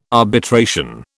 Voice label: "arbitration", British